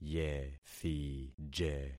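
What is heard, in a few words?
"yethije", concatenated syllables; Affixing experiment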